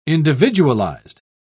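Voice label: "individualised", British English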